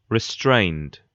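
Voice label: "restrained", British English; pronunciations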